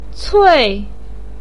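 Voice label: "cui4", Mandarin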